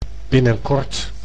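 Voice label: "binnenkort", Dutch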